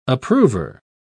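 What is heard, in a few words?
"approver", British English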